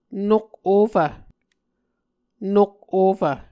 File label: "nok ofv", Muscogee